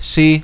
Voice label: "Yes", es, Adult, Male